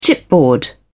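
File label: "chipboard", English, British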